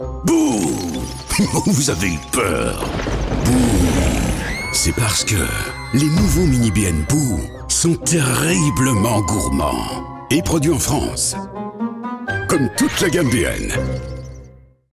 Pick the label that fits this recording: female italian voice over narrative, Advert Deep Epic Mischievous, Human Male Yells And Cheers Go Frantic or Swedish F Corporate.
Advert Deep Epic Mischievous